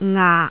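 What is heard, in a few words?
"ngaak6", Cantonese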